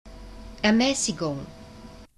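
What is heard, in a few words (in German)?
"Ermäßigung", German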